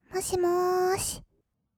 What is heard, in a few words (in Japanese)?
もしもーし, kawaii voice; ASMR; 1200 kawaii voice!!; 音ゲー